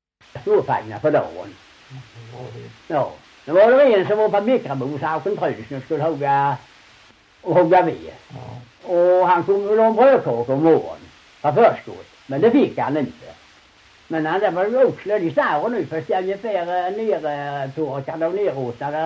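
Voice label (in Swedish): Ramdala Blekinge, svenska sagor dialekter; Folkminnen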